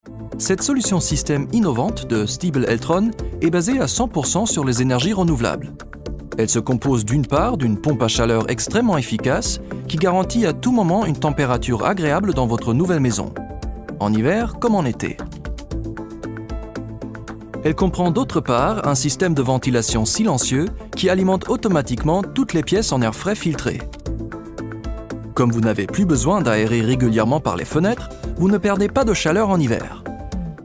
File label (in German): Image fr hoeflich klug; Stiebel Eltron